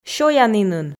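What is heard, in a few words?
"сёянінын", Komi, female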